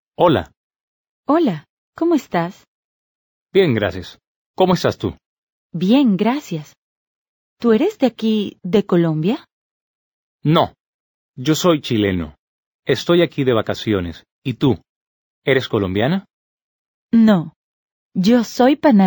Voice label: Dialogue, Spanish